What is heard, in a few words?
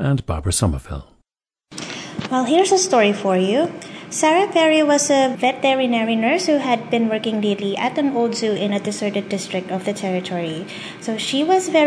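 philippines, dialects of English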